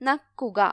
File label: "lick", ml; gcompris